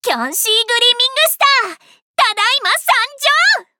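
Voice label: start, Japanese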